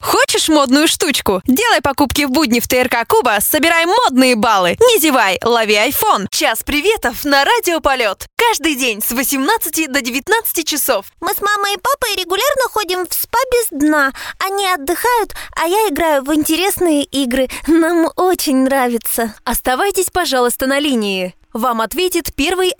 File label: Rus